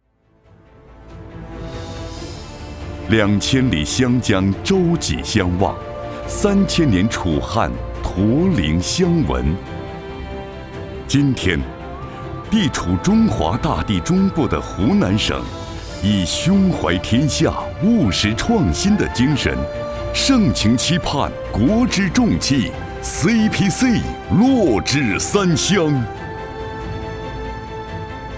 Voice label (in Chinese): 专题 政府 浑厚; 湖南CEPC国家项目工程